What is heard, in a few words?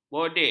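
"bodek", Malay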